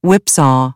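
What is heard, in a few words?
"whipsaw", American, English; AmericanBritish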